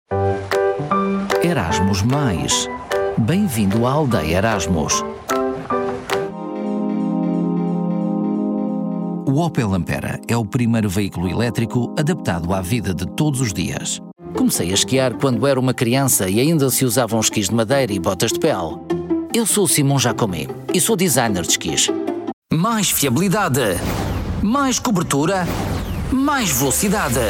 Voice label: Portuguese voice commercial

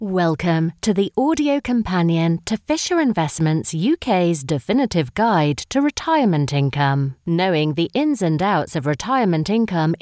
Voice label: elearning

